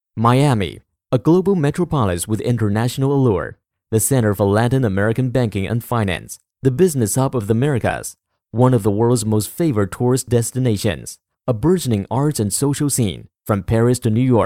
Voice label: chinese mandarin accented english

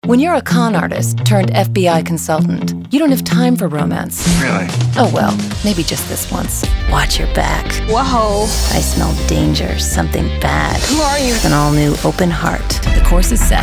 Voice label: women promos

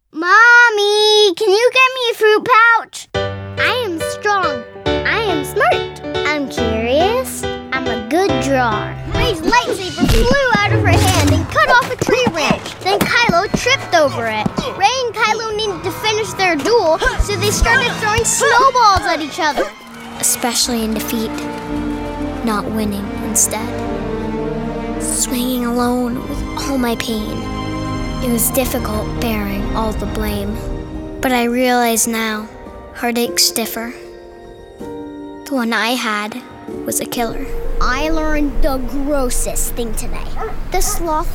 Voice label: kids commercial

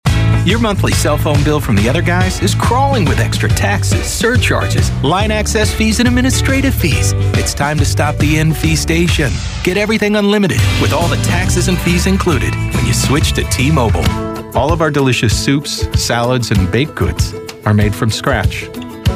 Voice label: men, commercial

